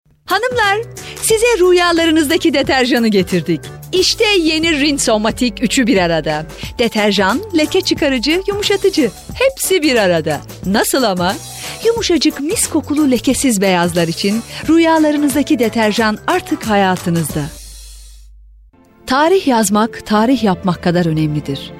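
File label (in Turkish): turkish female commercial; voice demos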